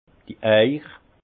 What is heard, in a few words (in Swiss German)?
"eich", alsace; vin